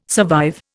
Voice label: "survive", Indian

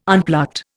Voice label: "unplucked", Indian

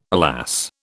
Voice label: "alas", British

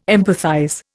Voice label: "empathize", Indian

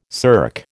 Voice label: "SERC", American

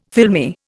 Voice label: "filmy", Indian

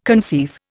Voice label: "conceive", Indian

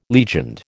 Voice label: "legioned", American

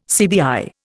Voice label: "CBI", Indian

